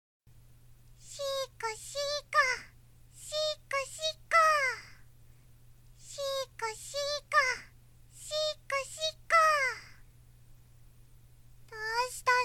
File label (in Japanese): 射精するまで意味深に「しこしこ」と言い続けるロリ少女